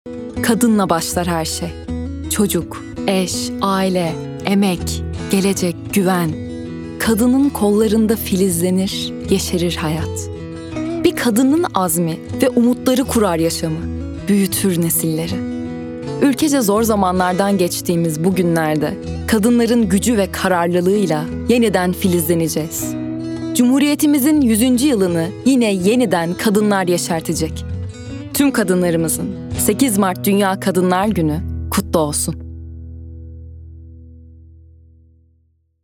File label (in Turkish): duygusal motive, seslendirme